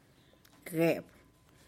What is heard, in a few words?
"geb", type of yellow flower, tlacochahuaya